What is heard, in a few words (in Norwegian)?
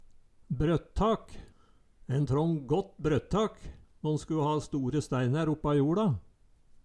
"brøtt-tak", Numedalsmål